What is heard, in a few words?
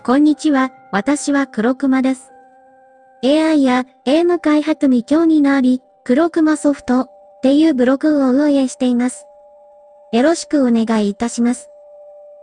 self introduction female voice